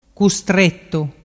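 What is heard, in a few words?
"custrettu", Vernazzese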